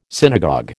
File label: "synagogue", American